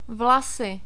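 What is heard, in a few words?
"vlasy", Czech, male; short vowels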